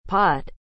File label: "pot", English